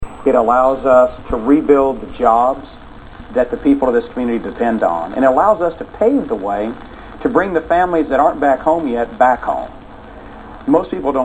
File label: new orleans man, american